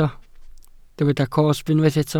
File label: "cosb", welsh